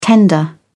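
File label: "tender", British English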